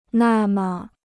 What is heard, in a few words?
"那么", Chinese